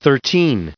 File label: "thirteen" en-us recite